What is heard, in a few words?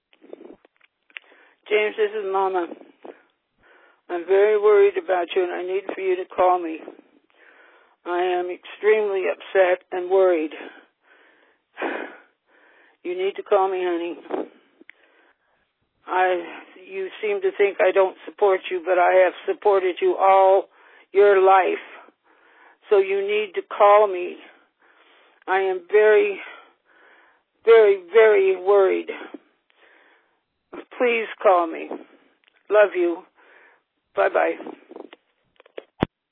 Voicemail